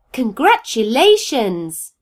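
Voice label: congratulation, Scottish Gaelic; gcompris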